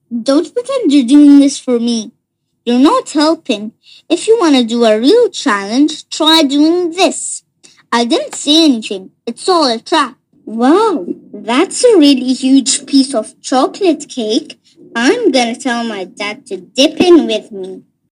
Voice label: Eng, lebanese english accent child boy